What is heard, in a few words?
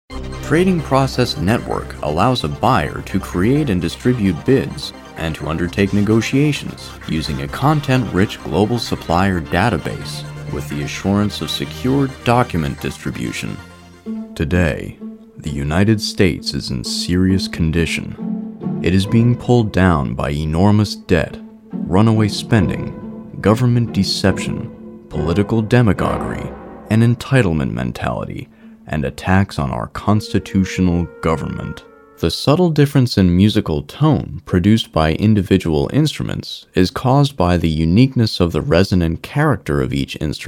Narration